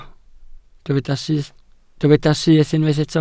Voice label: "syth", Welsh